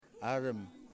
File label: "Water", Yimas